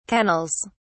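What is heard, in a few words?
"kennels", British English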